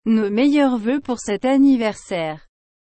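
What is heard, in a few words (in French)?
"Nos meilleurs voeux pour cet anniversaire", French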